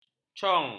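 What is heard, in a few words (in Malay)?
"cong", Malay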